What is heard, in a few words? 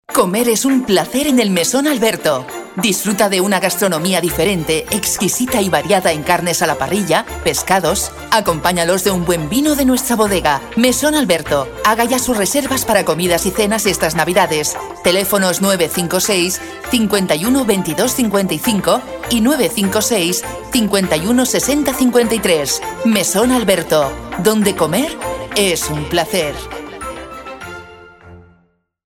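Spanish, female, commercial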